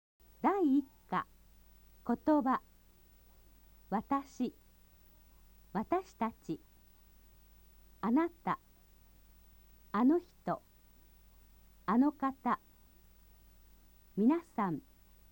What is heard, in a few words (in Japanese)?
nihongo vokabeln